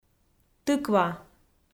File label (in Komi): "тыква", Komi